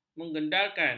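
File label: "mengedarkan", Malay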